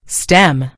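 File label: "stem", American English; gcompris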